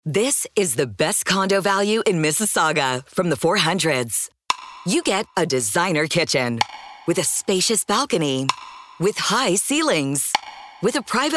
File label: Radio Commercial; Liberty